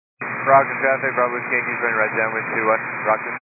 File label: 122 725MHz, scanner radio